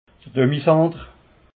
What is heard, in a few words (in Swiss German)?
"demicenter", alsace